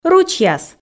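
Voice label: "ручьяс" Komi, female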